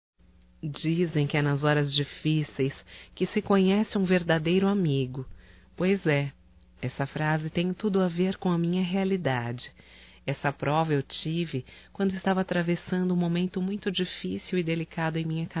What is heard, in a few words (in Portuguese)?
AMIZADE AMIGA, Só Voz, fem; Telemensagens